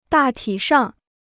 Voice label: "大体上", Chinese

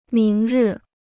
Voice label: "明日", Chinese; míng rì; tomorrow

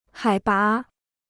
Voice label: "海拔", Chinese

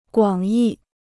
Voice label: "广义", Chinese

